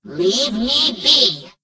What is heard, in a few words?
Gnome Female Farewell; Death Knight